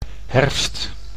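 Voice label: "herfst", Dutch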